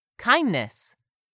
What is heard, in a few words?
"kindness", English; 3000 words